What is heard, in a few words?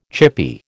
"chippy", American